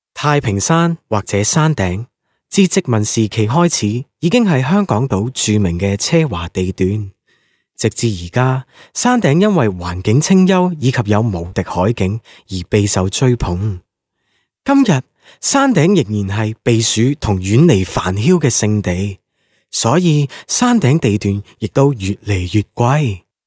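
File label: Cantonese male corporate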